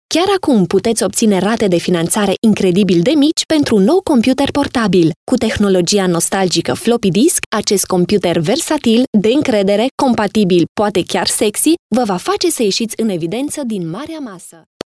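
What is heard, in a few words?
Romanian, female, explainer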